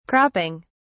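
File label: "cropping", British English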